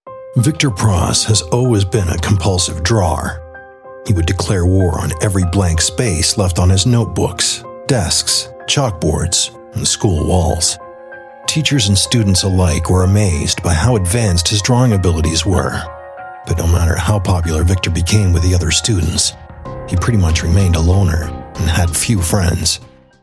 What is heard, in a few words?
short impressive voiceoverss